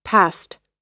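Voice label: "passed", American English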